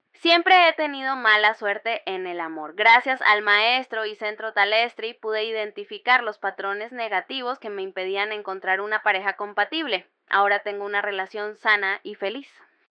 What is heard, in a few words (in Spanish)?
Mujer